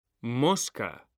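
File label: "mosca", Spanish, male; mekanta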